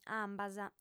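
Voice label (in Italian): "ambas", sarda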